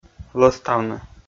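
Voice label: "лӧставны", Komi, male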